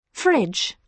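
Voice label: "fridge", British English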